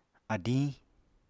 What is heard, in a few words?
"adiñ", apatani